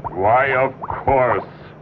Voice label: male; expletives

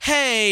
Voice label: "hey" male

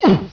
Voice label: "uh", male

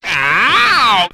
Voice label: "ooh wow", voice; ooh ahh; squidward

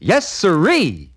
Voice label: yes siree, announcer

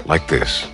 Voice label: "like this", male

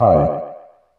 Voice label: "hi", robot; Voice; introductions; hello hi hey

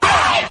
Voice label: "rowh", voice; ooh ahh

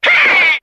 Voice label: hey cartoon

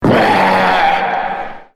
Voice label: "bah", male; ooh ahh

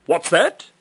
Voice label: what's that, british bloke; questions

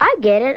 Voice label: i get it cartoon girl; Voice

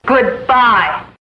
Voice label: "good bye", female; Voice; expletives

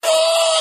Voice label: "oh", distant guy; ooh ahh

